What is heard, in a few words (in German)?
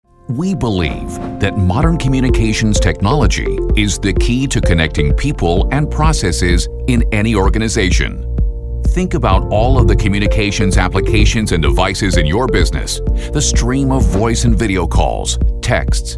Imagefilme Profi Publikum; NATIVE PROFISPRECHER